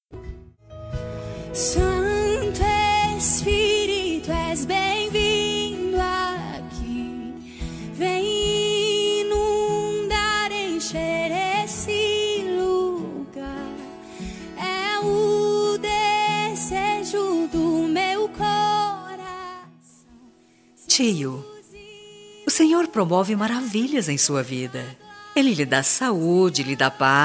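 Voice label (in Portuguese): birthday TIO EVANGELICO female, telemensagem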